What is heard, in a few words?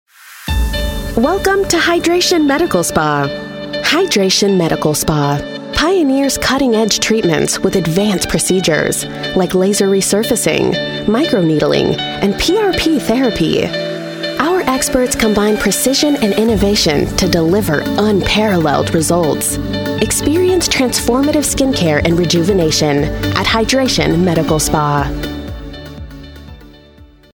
female english usa medical spa commercial